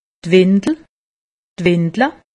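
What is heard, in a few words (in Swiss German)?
"windel", Alsatian; medecine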